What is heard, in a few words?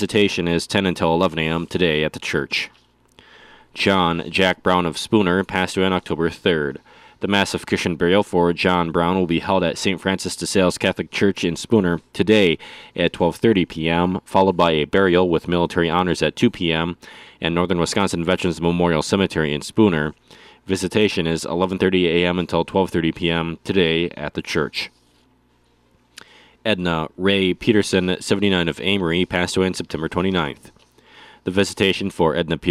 Funeral Announcements